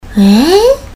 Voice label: japanese girl eee doubtful